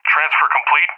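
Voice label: "transfer complete", English speech, Tanker; Messages; DCS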